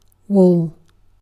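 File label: "wall", British English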